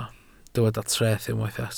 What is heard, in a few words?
"treth", Welsh